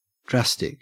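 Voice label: "drastic", Australian English; pronunciations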